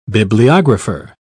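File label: "bibliographer", British English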